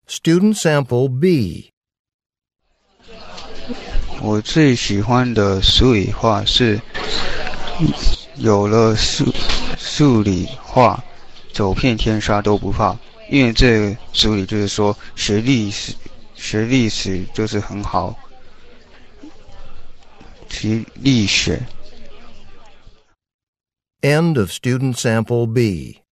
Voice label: chinese conversation

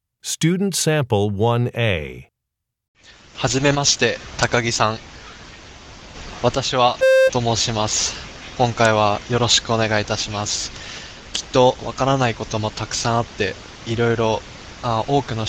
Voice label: japanese conversation

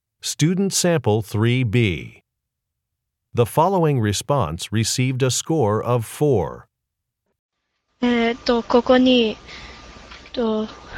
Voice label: japanese conversation

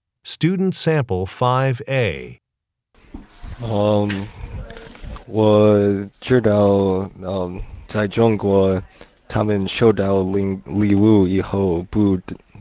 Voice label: chinese conversation

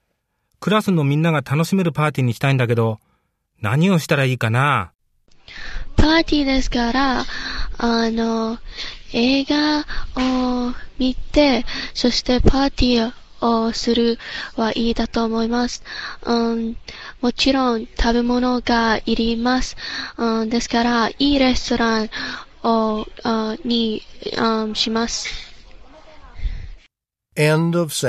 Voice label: japanese conversation

